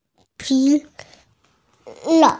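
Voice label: "pi2 ao3", Mandarin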